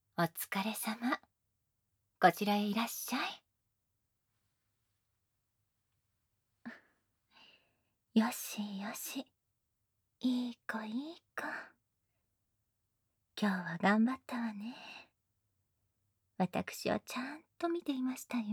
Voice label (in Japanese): よしよしボイス; クローディ